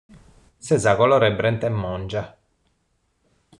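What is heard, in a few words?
"Colori Brenti Mongia", Sardinian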